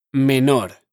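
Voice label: "menor", Spanish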